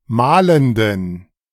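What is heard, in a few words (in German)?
"mahlenden", German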